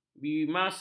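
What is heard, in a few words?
"bimasakti", Malay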